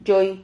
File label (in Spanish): "yoi", Silabas